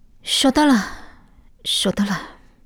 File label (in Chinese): 偷听对话; 李氏